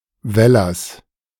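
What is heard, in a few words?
"Wällers", German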